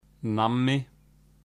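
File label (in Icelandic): "nammi", Icelandic; matarhefti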